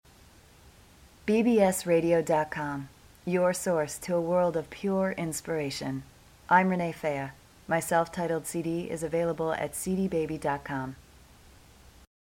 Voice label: bbs radio promo